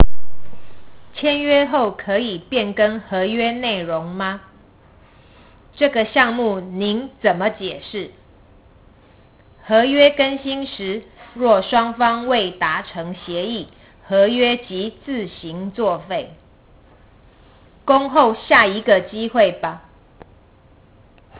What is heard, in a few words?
Chinese; 契約